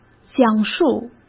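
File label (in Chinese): "讲述", Mandarin Chinese; chinese-flashcards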